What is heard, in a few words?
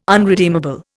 "unredeemable", Indian